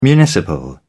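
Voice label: "municipal", British English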